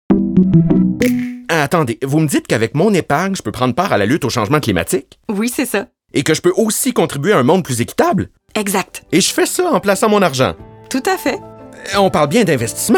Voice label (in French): Pub Radio; Desjardins